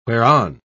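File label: "whereon", British English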